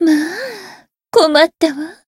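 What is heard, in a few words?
Voice encounter fear, Japanese; toova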